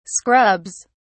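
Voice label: "scrubs", British English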